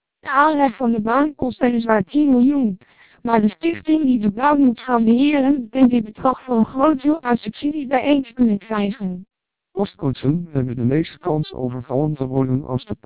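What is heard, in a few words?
TWELP 400 bps Vocoder, Dutch speech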